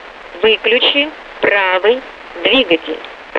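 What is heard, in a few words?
switch off right engine, Russian; DCS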